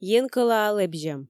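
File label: "енкӧлаӧ лэбзьӧм", Komi, female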